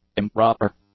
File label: "improper", English; adjectives